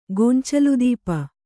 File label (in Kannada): "ಗೊಂಚಲುದೀಪ", Kannada